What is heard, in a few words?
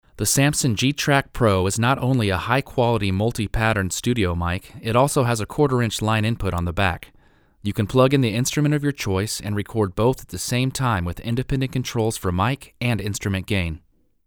Voc Samson GTrack Card, usb mic